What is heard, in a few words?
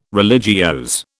"religiose", British